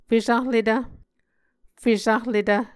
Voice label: "fesahletv", muscogee